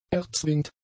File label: "erzwingt", German; adjectives